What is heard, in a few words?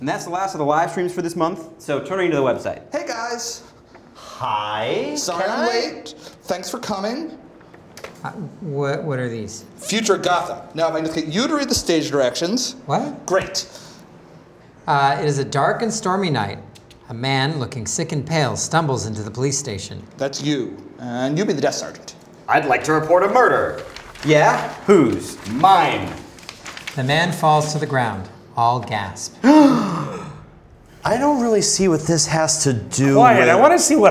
Sound Devices 688 MixAssist Tweaked